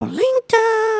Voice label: group talk; emotes